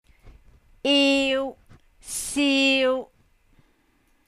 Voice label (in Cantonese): iu siu1, cantonese